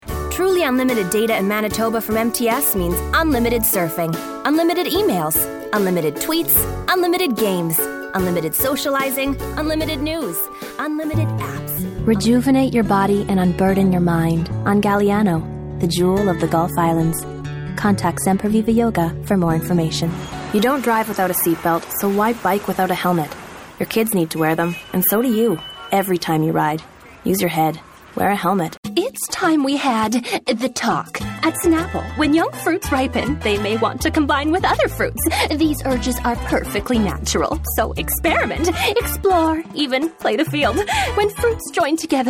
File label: Commercial